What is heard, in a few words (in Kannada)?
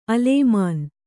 "ಅಲೇಮಾನಿ", kannada